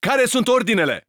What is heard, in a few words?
Rom neutral, 罗马尼亚语; 钢铁雄心4